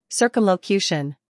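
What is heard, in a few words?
"humor", British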